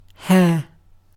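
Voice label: "hair" British English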